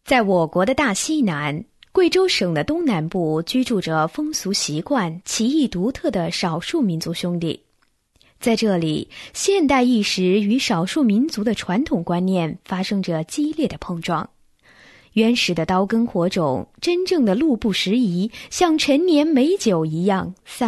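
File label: chinese mandarin female narration; voice demos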